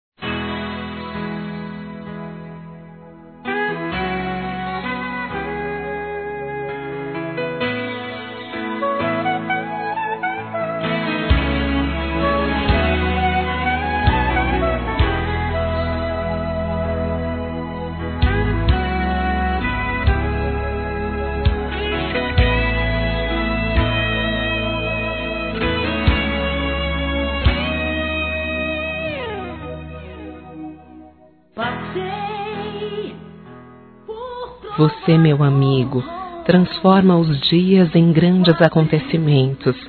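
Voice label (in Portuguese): HOMENAGEM AO PASTOR, feminino; Telemensagens; Telemensagens 2017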